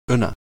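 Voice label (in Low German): "uenner", Low German; klettres; tdeedu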